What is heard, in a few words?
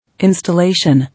"Installation", English